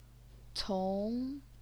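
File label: "cong", second tone, Chinese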